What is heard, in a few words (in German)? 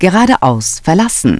"geradeaus verlassen", Navigation; DISTEFORA Navigation; Mobile Navigator Notebook